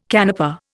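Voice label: "canapé", Indian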